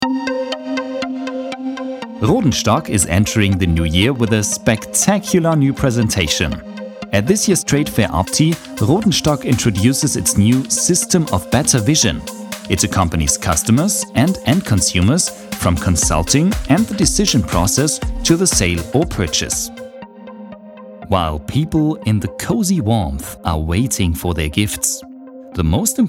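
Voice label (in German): US English, Neutral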